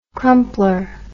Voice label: "crumpler", english